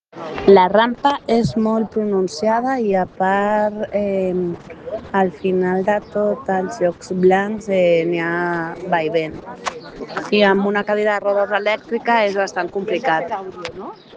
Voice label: Voice message